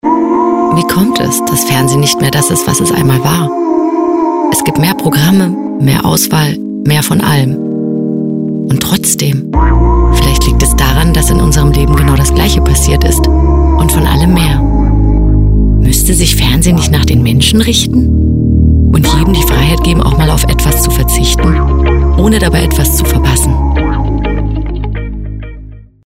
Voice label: Fernsehen Spot; Belle La Donna

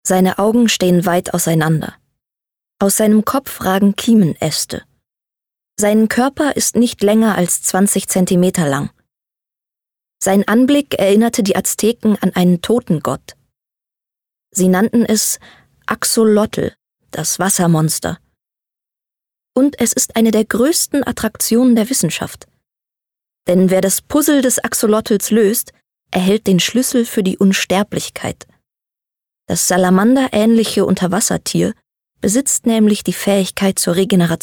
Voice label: Innerer Dokumentarischer Text

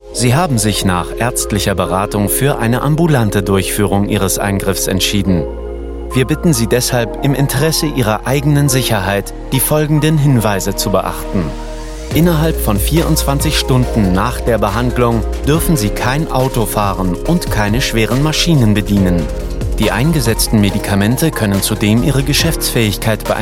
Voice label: Erklärfilm medizinisch

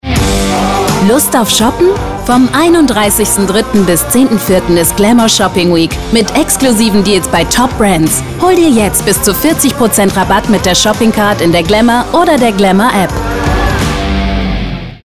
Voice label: DE WERBUNG TV FUNK Glamour Shopping Week

